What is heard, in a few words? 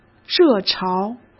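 "热潮", Mandarin Chinese; chinese flashcards; hsk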